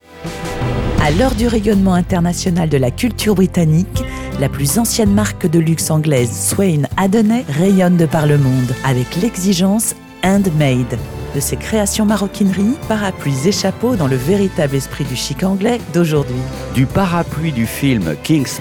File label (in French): Publicite